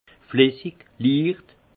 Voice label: "flissig", alsace; vin; coulant

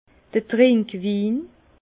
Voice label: "trinkwin", Bas Rhin; vin

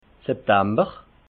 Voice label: "septamber", alsace

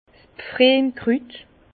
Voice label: "pfriem krut", Bas Rhin; vin; genêt

